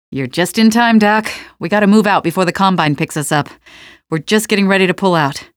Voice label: gunboat pullout, male; Half-Life 2; canals